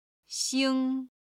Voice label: "xing", chinese